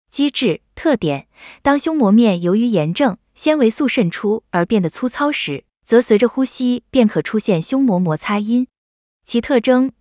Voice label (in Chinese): 配音